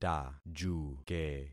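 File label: "tajuke", concatenated syllables; Affixing experiment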